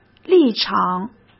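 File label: "立场", Mandarin Chinese; hsk; chinese-flashcards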